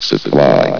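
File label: "supply", male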